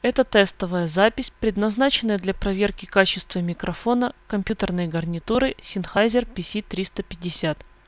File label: Sennheiser pc350